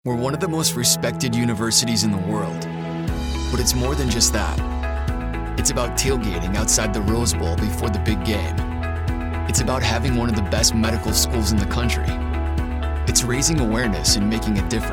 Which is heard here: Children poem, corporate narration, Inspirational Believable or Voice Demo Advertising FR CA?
Inspirational Believable